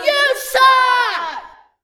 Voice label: Inmate Fem Heckle